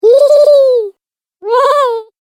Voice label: Cartoon Game Voice Happy Sounds; Cartoon Voice Sound Effects